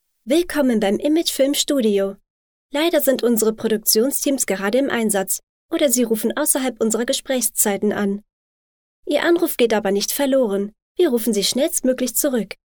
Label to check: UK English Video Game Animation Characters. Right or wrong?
Wrong